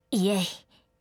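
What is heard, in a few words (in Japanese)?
"イェイ", Japanese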